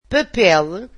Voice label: "paper", Portuguese